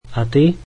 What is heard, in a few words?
and you, slovak